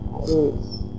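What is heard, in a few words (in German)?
"gross", German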